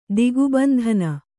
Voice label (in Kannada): "ದಿಗ್ಬಂಧನ", Kannada